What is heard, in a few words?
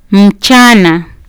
"mchana", Kiswahili